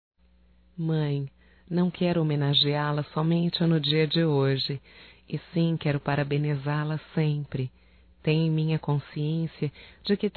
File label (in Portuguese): Dia das Mães Feminino, Telemensagens, Só Voz; Telemensagens 2017